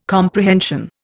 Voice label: "comprehension", Indian